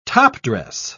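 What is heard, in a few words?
"topdress", British English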